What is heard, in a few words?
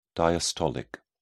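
"diastolic", British English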